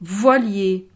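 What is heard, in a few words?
"voilier", French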